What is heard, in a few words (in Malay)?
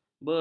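"belar", Malay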